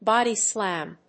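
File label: "Bodyslam", English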